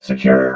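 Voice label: "secure", robot voice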